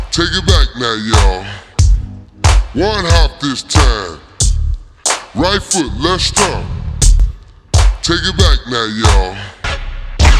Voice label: instructional vhs